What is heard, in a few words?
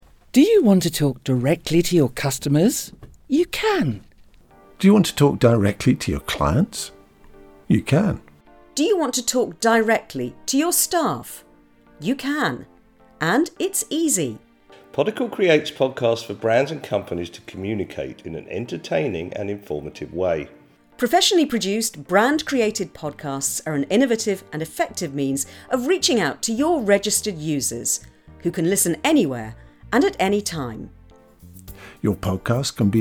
Audio Email Voices Music Bed